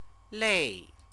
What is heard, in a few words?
"lei", Chinese; CTCFL; University of Oxford